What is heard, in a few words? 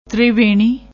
"triveni", Punjabi